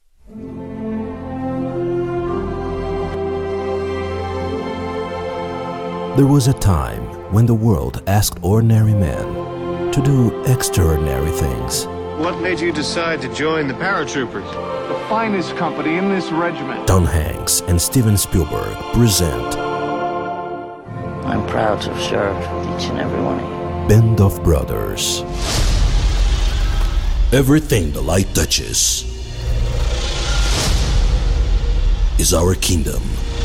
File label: TV Promo Movie